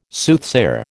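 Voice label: "Soothsayer", American English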